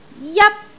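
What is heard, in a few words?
"jat1", Cantonese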